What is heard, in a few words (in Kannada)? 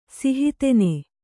"ಸಿಹಿತೆನೆ", Kannada